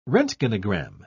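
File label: "roentgenogram", British English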